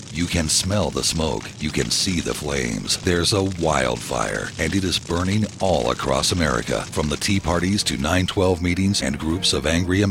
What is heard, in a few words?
Radio Commercial